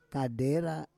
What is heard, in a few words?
"kadera", Roon